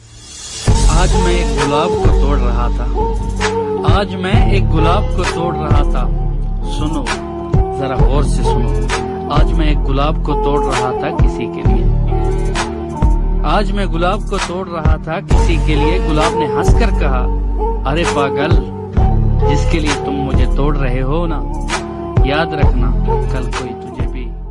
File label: Tik Tok Shayari Ringtone